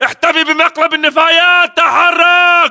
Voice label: order cover dumpster, battle chatter, english